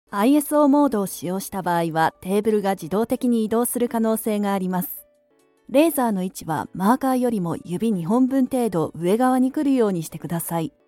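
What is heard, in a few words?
Japanese, e-learning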